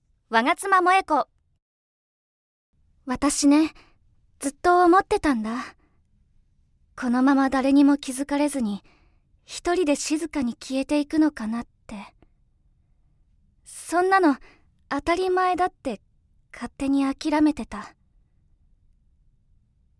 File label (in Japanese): ナチュラル; 出身地 ： 千葉県